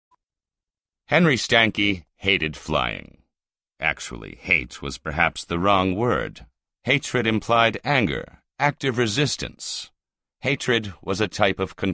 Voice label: audiobook